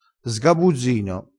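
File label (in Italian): "sgabuzzino", dialetto crespinese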